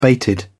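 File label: "bated", British English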